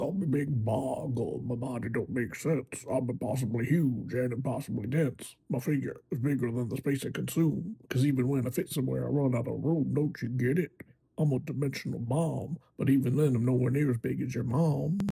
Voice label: Big Boggle Voice